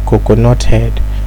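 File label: "coconut head", Nigerian Pidgin, male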